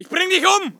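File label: voice german infantry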